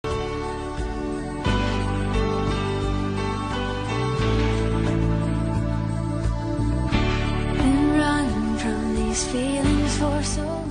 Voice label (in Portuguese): atrasado fem, Aniversário Atrasado – Voz Feminina; Telemensagens para todas as ocasiões